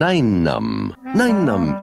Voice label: ny num ny num, gibbrish